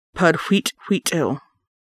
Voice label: "October", Lushootseed